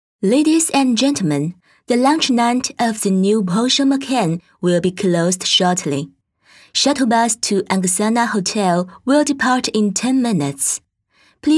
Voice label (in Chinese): 女 英文主持 中英双语亲切; 保时捷之夜主持